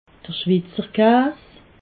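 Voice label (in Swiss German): "schwizer kaas", Alsatian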